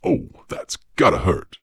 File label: corp gloat; Dystopia; vox new